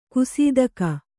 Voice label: "ಗಸಿತೆಗೆ", Kannada

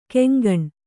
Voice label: "ಕೊಂಕಣೆ", Kannada

